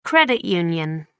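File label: "credit union", British English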